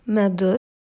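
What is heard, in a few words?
"medhu", Proto-Indo-European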